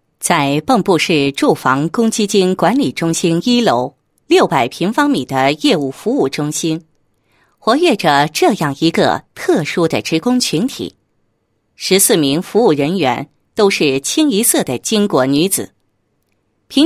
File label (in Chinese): 女 工作纪实 十佳巾帼文明岗纪实